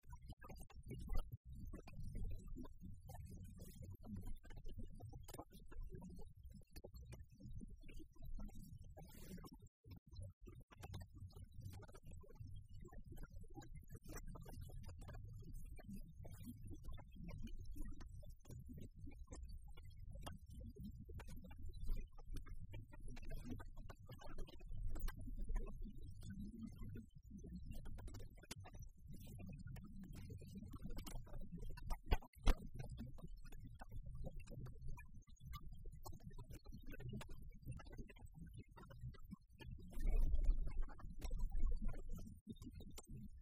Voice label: royal wedding smalldale village green party on high peak radio